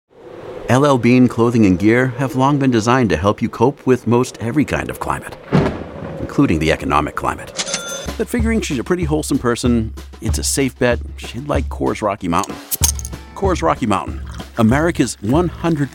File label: COMMERCIAL